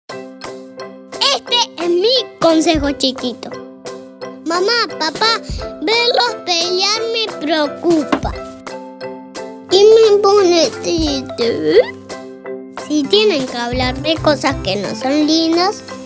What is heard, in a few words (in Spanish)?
discusiones